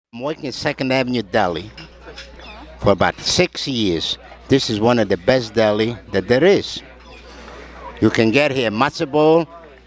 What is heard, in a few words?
Lower East Side Yiddish NYC; New York